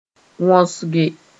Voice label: "wõsge", Moore

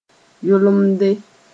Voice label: "yolemde", moore

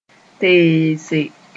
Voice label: "teese", moore

